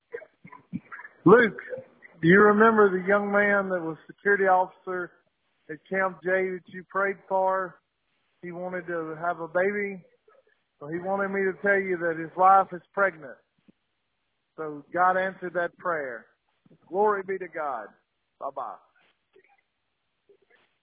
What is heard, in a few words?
voicemail; Does God use broken people?